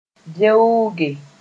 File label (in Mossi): "veooge", moore